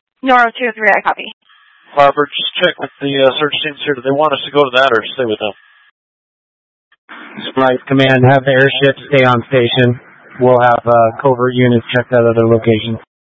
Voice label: Mutual Aid-Intersystem Interop B, scanner